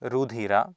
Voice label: "rudhira", Sanskrit